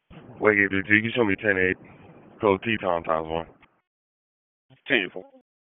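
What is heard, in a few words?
Dispatch, P25; WSO DISP 1; wake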